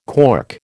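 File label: "cork", American English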